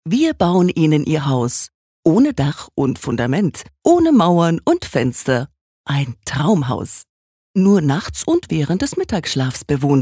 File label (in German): Werbung, Freundlich